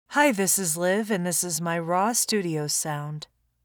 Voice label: Raw Booth Sound